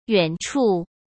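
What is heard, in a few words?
"远处", Mandarin Chinese; hsk; chinese-flashcards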